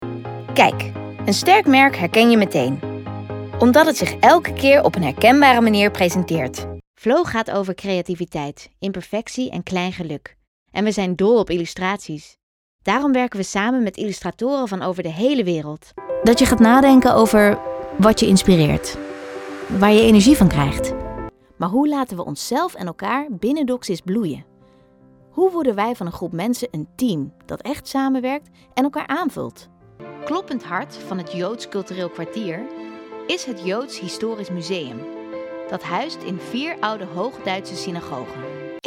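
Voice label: Voicedemo NL, Dutch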